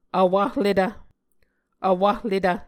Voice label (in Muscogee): "vwahletv", Muscogee